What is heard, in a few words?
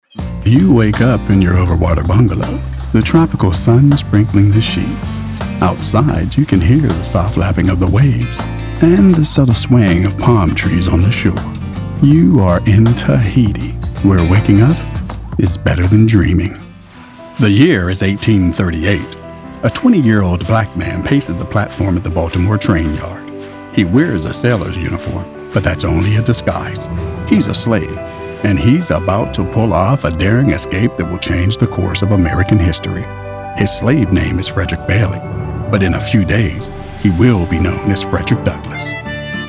narration